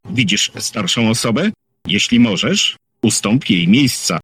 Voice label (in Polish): starsza osoba, zapowiedzi; rozklad; lodz